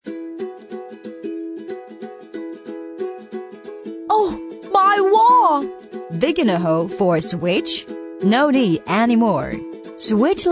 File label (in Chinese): 女声 英文; 福田